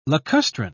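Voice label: "lacustrine", British English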